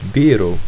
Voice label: "biro", Ido